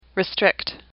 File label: "restrict", English; AWL